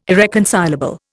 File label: "irreconcilable", Indian